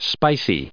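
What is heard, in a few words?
"spicy", US English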